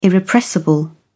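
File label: "irrepressible", British English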